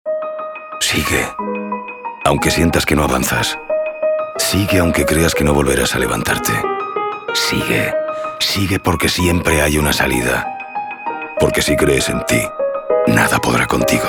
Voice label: RADIO; FEM 2016